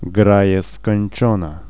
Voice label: GameOver, vocals pl; fan made